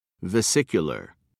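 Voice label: "vesicular", British English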